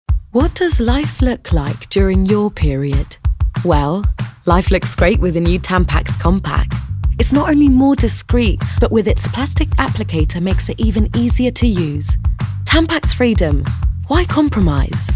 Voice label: female english and spanish castilian english commercial; tampax